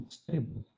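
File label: "unstable", English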